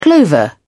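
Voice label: "clover", British English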